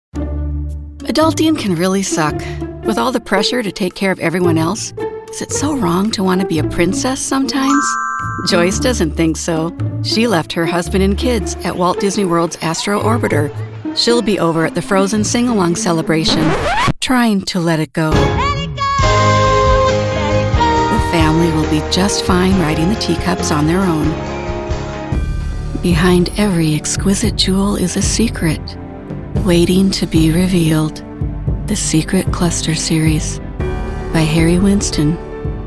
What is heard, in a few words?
COMMERCIAL